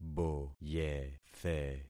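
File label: "boyethe", concatenated syllables; Affixing experiment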